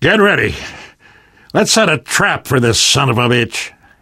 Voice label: tank in train yard, survivor voice; Left 4 Dead; Source Engine; video games